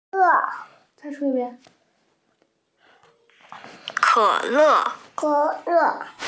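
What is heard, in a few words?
"ke3 le4", Mandarin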